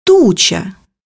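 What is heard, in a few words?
"storm cloud", Russian; bukvar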